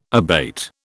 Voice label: "abate", British